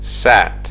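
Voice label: "sat", English; fonetica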